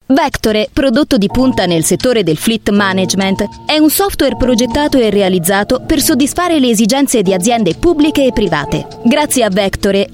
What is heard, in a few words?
Italian